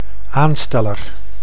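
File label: "aansteller", Dutch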